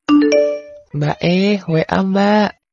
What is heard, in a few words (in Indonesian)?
nada notifikasi versi jawa; Mbak e, WA Mbak; Nada dering viral